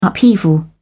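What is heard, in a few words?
"upheaval", British English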